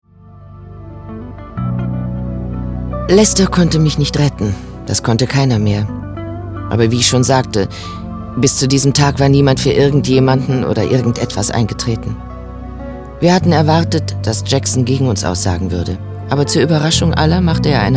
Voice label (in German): synchron